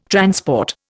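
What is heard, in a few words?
"transport", Indian English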